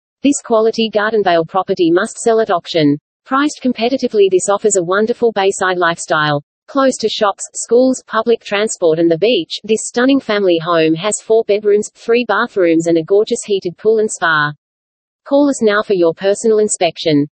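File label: Australian presenter, real estate videos; My Real Estate Videos; Melbourne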